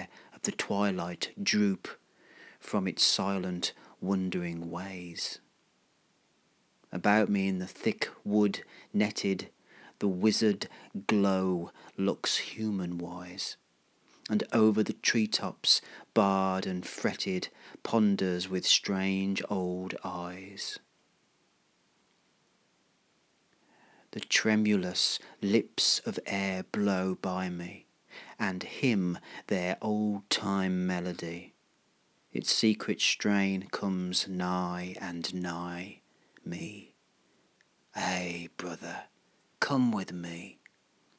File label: late night celtic poetry